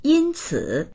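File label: "yin1ci3", Mandarin Chinese; 因此; これによって、それゆえ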